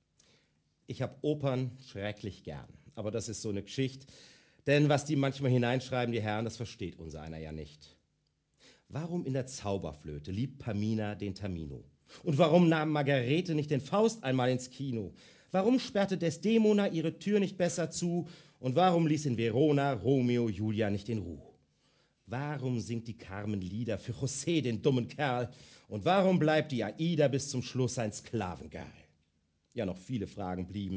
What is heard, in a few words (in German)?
Lesung; sprecher